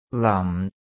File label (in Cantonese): "lam4", cantonese